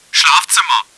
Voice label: bedroom, german; csco; csgo; bot